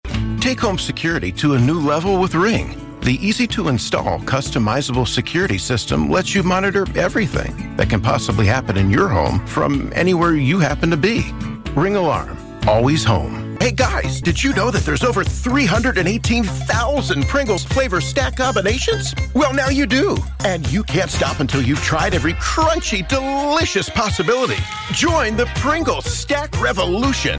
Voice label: Commercial Playful

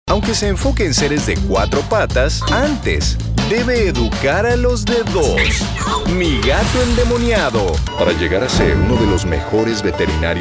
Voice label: promo

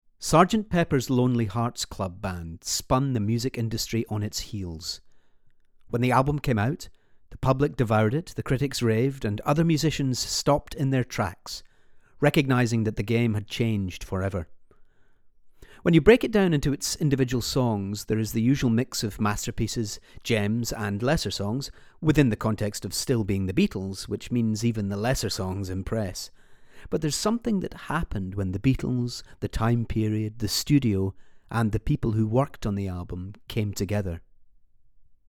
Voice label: Narration Extract

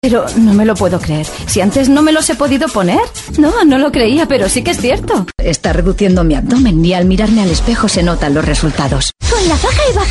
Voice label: teleshopping testimonials

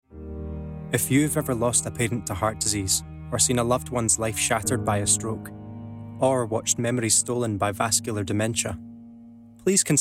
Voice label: Commercial; British Heart Foundation

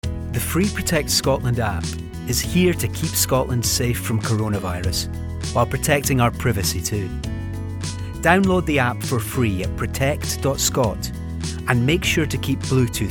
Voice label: Commercial; Scot Gov

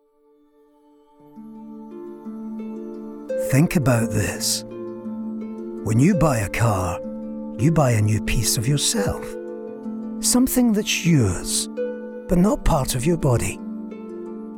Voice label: Commercial Samples

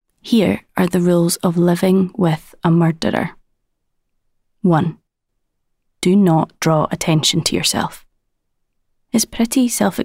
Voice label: Narrative Documentary

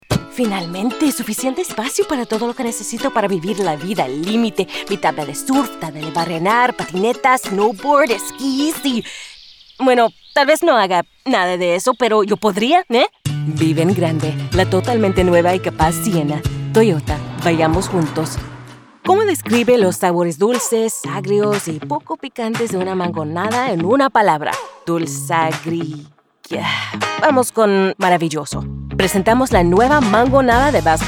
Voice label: Spanish Commercial

